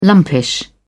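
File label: "lumpish", British English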